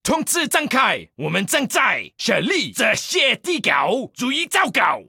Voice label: Chinese Soldier; fallout; Tranquility Lane